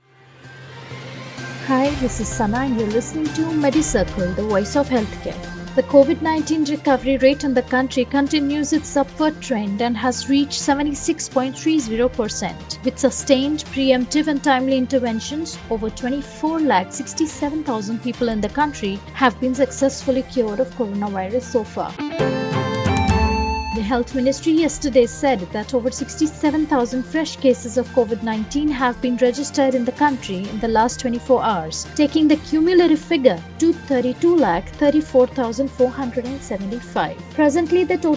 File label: english news